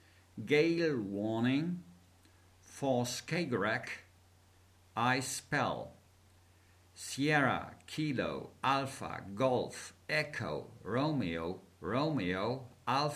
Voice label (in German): Seefunktext